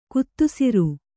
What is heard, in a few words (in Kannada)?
"ಗೋಧಿಸರ", Kannada